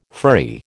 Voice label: "furry", American

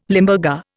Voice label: "Limburger", Indian

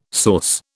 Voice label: "source", British

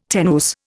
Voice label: "tenuis", Indian

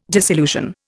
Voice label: "disillusion", Indian

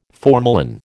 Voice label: "formalin", American

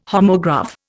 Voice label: "homograph", Indian

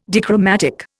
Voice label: "dichromatic", Indian

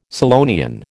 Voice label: "Scillonian", American

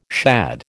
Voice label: "shad", American

